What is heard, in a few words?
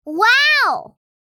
"Wow" surprise, girl child kid